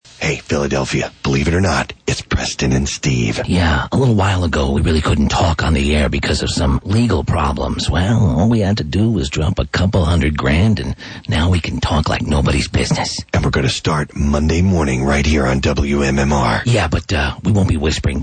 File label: whisper promo; wmmr